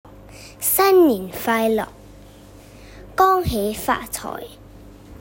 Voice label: Cantonese 粤语